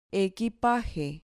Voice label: "equipaje", Spanish

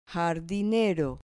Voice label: "jardinero", Spanish

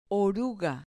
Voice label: "oruga", Spanish

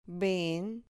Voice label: "ven", Spanish

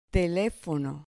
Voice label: "telefono", Spanish

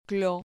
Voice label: "clo", Spanish syllable

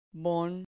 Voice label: "bon", Spanish

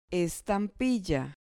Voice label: "estampilla", Spanish

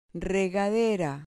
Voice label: "regadera", Spanish

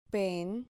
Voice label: "pen", Spanish

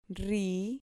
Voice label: "rri", Spanish

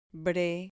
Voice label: "bre", Spanish

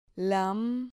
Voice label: "lam", Spanish

